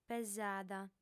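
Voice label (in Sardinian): "pesada", female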